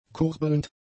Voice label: "kurbelnd", German; adjectives

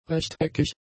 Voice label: "rechteckig", German; adjectives

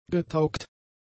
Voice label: "getaugt", german; adjectives

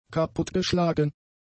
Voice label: "kaputtgeschlagen", German; adjectives

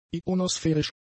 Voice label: "ionospherisch", german; adjectives

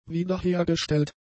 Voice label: "wiederhergestellt", German; adjectives

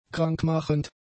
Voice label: "krankmachend", German; adjectives

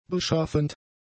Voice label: "beschaffend", German; adjectives

